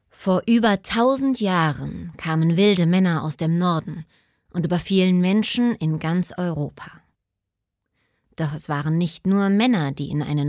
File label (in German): Doku; Die Frauen der Wikinger; LOFT VOICES